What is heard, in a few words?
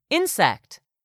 "insect", English; 3000 words